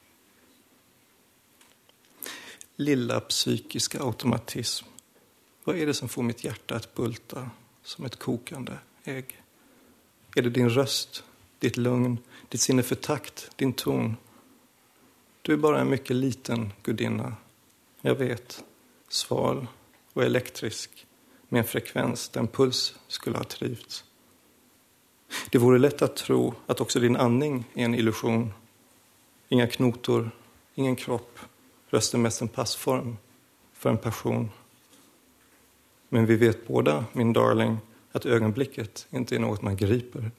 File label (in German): Schwedisch; Liebeserklaerung an Fraeulein Uhr; drama im leichenschauhaus